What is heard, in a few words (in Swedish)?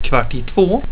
"kvart i två", swedish; tijden